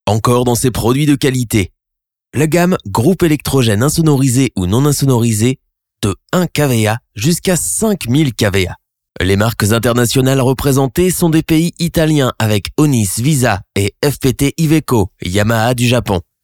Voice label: FRENCH